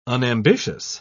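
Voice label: "unambitious", British English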